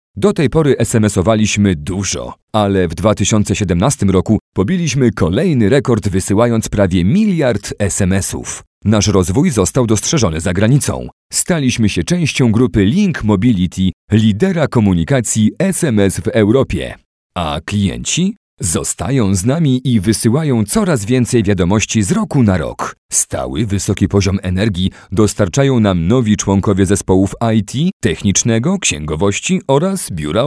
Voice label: POLISH MALE